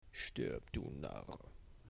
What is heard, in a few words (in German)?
Narr